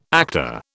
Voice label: "actor", British